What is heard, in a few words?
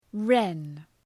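"wren", English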